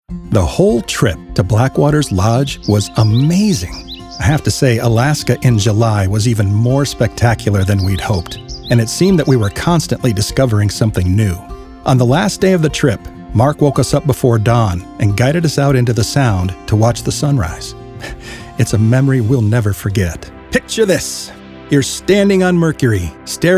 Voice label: Narrative